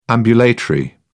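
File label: "ambulatory", English, British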